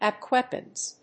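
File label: "abc weapons", English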